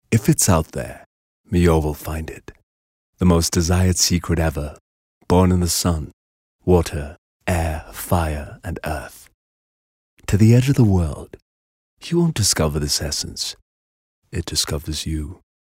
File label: English intimate; OSM Talent